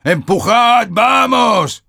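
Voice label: Sniper cart moving forward offense soft, Spanish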